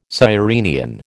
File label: "sirenian", American